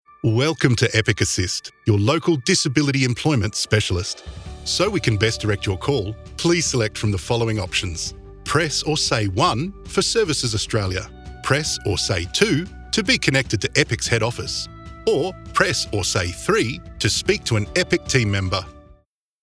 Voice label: MESSAGE ON HOLD; EPIC ASSIST

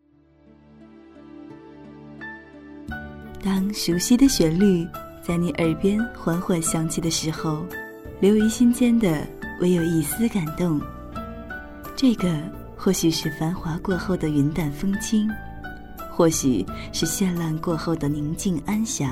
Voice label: Female ad

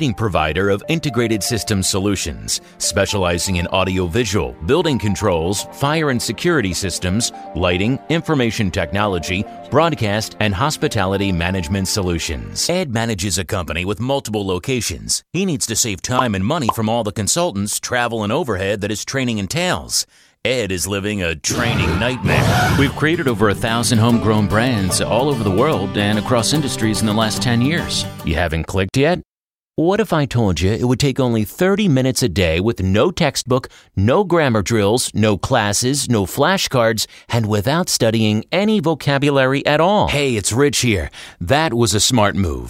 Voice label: Explainer Videos

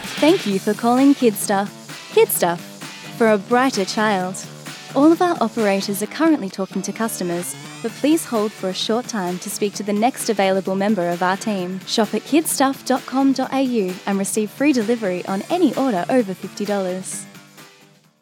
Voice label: On Hold Message

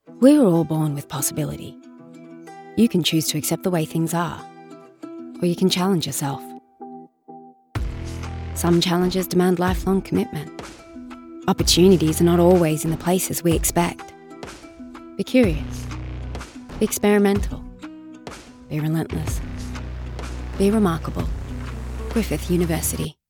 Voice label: Naturalistic

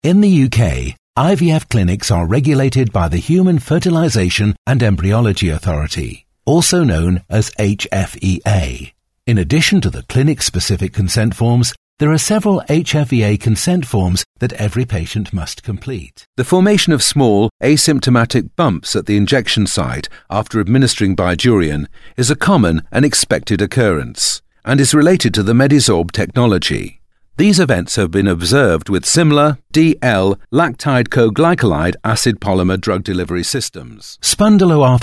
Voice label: Medical Narration

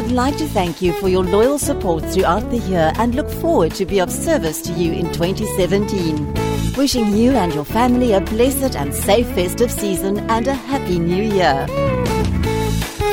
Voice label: on hold message

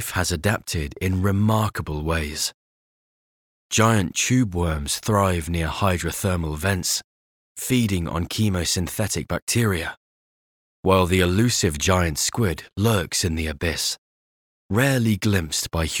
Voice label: The Deep Sea, British; English (British)

